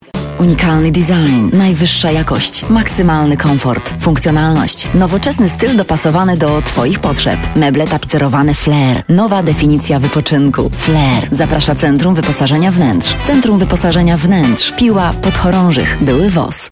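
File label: voice female advert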